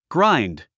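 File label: "grind", English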